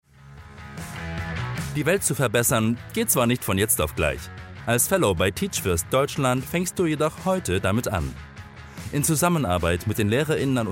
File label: german corporate videos